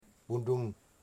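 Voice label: "mountain", Wamut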